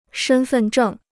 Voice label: "身份证", Chinese

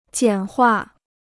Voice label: "简化", Chinese

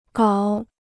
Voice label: "搞", Chinese

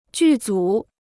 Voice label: "剧组", Chinese

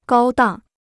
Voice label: "高档", Chinese

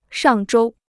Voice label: "上周", Chinese

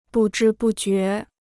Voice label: "不知不觉", Chinese

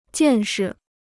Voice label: "见识", Chinese

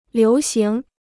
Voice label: "流行", Chinese

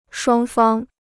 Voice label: "双方", Chinese

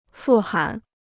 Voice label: "富含", Chinese

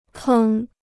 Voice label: "坑", Chinese

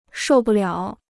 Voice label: "受不了", Chinese

